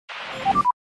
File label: f1 team radio